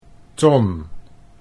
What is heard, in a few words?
"ton", Dutch